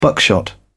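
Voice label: "buckshot", English, British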